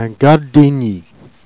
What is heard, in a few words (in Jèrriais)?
"gardingni", Jèrriais